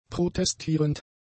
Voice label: "protestierend", German; adjectives

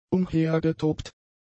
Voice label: "umhergetobt", German; adjectives

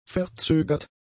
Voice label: "verzögert", German; adjectives

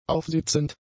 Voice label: "aufsitzend", German; adjectives

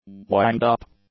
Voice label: "windup", English; nouns

